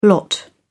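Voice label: "lot", British English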